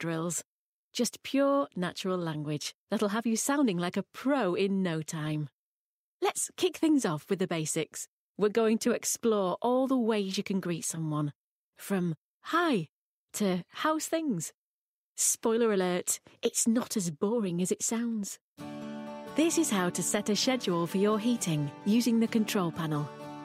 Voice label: english british e-learning